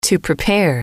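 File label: "to prepare", English, female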